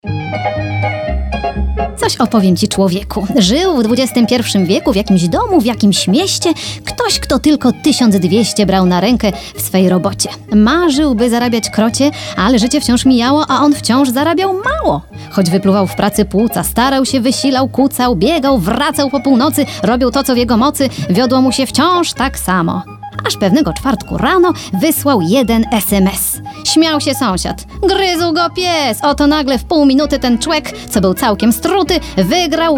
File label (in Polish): F BAJKA